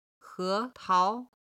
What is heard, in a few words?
"he2tao2", Chinese